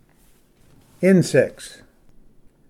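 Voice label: "insects", English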